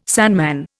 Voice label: "sandman", Indian

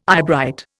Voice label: "eyebright", Indian

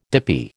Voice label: "dippy", American

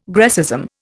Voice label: "Grecism", Indian

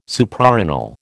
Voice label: "Suprarenal", American

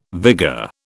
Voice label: "vigour", British

